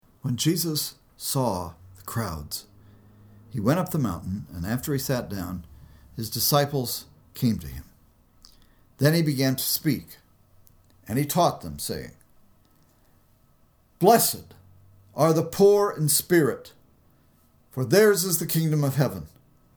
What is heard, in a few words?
storytelling